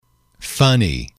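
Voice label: "funny", english, male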